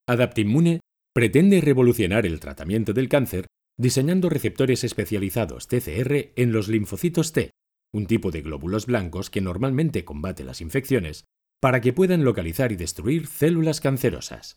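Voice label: corporativo, Catalan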